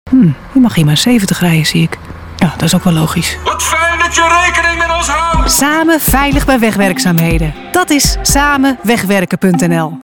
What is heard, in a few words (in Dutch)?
Radioreclame Nederlands